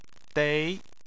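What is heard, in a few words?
"dei" second tone, Chinese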